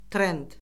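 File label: "trend", Azerbaijani; Orfoqrafiya Lüğəti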